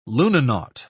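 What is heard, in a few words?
"lunanaut", British English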